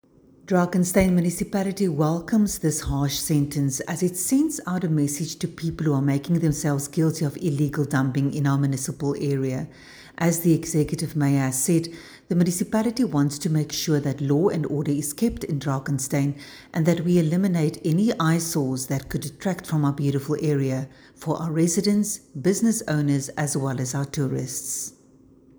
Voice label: illegal dumping english